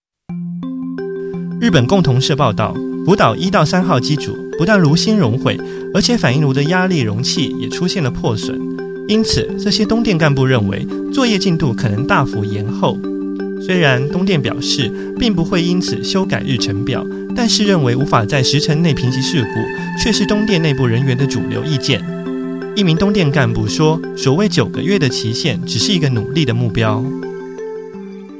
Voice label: news